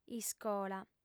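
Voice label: "iscola", Sardinian, female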